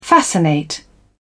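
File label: "fascinate", British English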